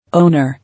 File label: "Owner", English